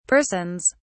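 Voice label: "persons", British English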